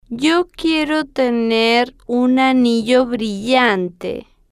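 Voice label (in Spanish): yo quiero tener un anillo brillante, Spanish, female, slow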